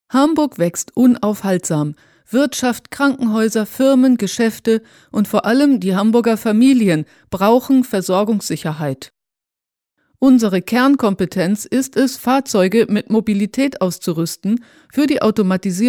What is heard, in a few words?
german female